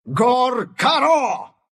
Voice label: mystic flare, ru; Dota 2